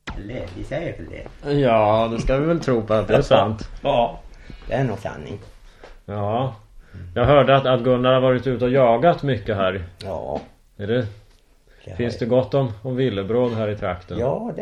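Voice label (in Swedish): Säfsnäs Dalarna, Svenska sagor, dialekter; Folkminnen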